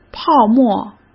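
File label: "泡沫", Mandarin Chinese; hsk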